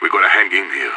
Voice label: scared emote, vo; idf

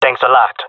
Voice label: thankful, jungle male; player vo; Counter Strike Model Pack; CS:GO

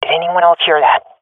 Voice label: heard sound, swat fem; vo; agents; Source Model Packs; Counter Strike Model Pack; CS2

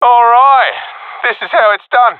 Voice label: round winning, professional epic; Counter Strike Model Pack; CS:GO

